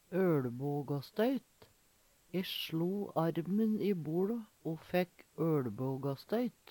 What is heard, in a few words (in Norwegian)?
olbagastoyt, Numedalsmål